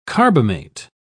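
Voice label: "carbamate", English, British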